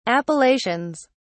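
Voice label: "appellations", British English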